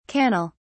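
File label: "cannel", British English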